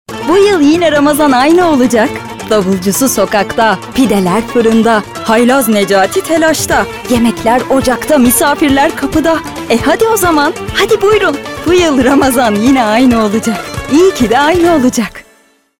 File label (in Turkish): reklam; cocacola